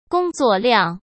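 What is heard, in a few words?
"工作量", Mandarin Chinese; hsk; chinese-flashcards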